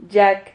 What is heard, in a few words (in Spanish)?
"yac", Silabas